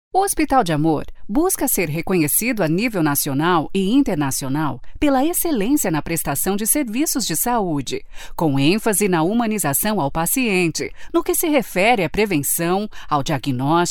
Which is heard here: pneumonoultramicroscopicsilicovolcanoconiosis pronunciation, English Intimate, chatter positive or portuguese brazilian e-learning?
portuguese brazilian e-learning